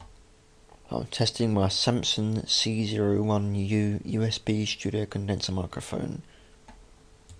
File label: studio mic test